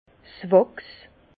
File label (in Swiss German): "wachs", Bas Rhin; encaustique; vin